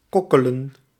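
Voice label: "kokkelen", Dutch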